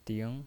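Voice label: "ding" Mandarin Chinese, second tone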